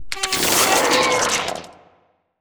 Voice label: portrait synthetic; Stellaris; machineage

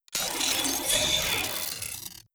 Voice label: robot molluscoid greetings; Stellaris; synthetic dawn

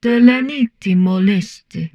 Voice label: humanoid portrait female; Stellaris; humanoids

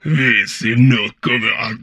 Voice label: humanoid portrait male; Stellaris; humanoids

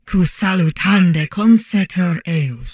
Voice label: humanoid portrait female; Stellaris; humanoids

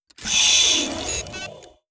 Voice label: robot fungoid greetings; Stellaris; synthetic dawn

